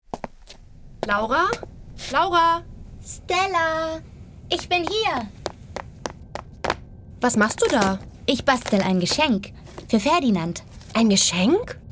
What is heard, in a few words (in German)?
Hoerspiel